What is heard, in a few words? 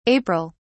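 "april", British English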